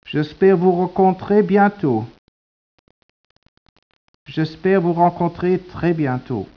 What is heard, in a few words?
speech, French